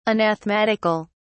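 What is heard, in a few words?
"anathematical", English, British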